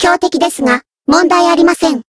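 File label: Voice encounter fear, Japanese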